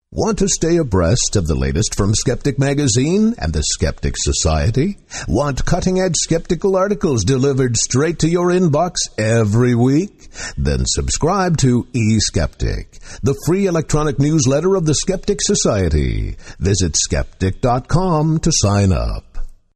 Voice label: PSA promo; eSkeptic